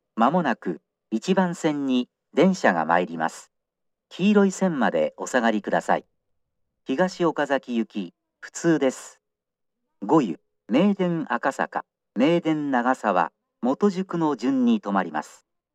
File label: approach local, st announce; higashiokazaki; meitetsu